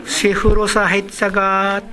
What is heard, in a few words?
"sefuro", minamiyamagata